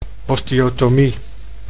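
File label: "osteotomie", Dutch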